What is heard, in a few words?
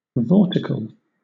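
"vortical", British English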